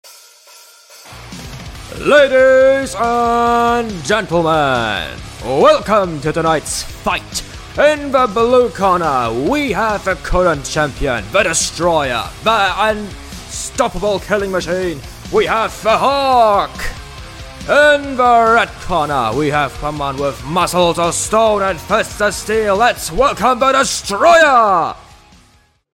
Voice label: Wrestle moderator